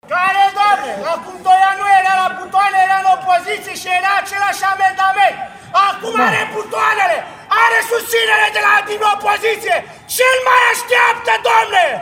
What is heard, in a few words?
VOX AMBIANTA